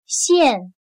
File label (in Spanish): "现", Chino